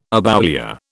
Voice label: "aboulia", British